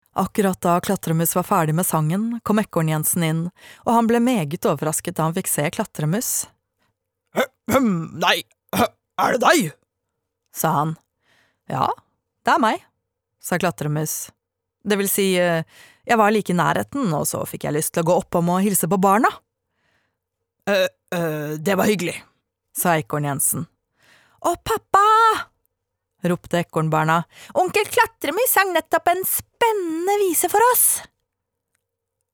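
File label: Norwegian childrens book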